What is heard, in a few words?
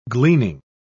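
"gleaning", British English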